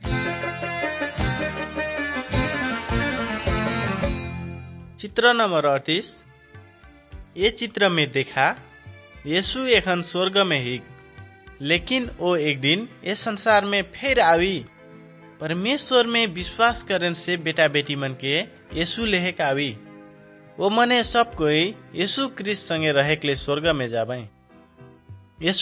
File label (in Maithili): good news maithili, nt drama